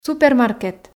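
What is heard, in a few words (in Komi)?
"супермаркет", Komi, female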